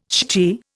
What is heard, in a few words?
"shchi", Indian